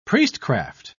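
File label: "priestcraft", British English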